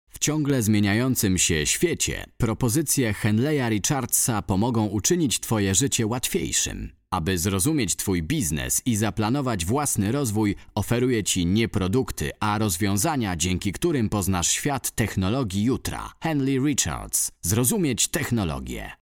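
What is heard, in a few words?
Polish, male, corporate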